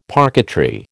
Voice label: "parquetry", American